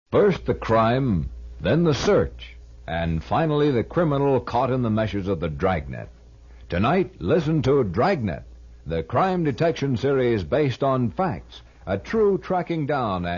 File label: Promo; KHQ Spokane; Dragnet